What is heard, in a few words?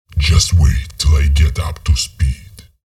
chatter neutral, en; visor